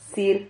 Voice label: "zir", Silabas

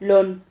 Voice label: "lon", Silabas

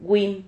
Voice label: "gûim", Silabas

